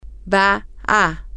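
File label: female, spanish; she is going to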